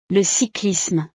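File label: "le cyclisme", French; deportes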